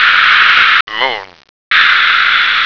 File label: "moon", American